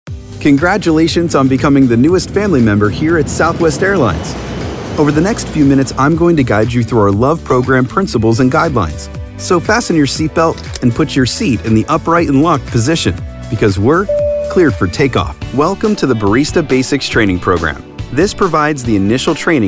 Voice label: english american e-learning